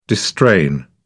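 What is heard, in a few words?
"distrain", British English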